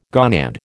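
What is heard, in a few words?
"gonad", American English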